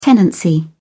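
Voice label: "tenancy", British English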